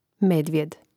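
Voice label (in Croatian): "medvjed", Croatian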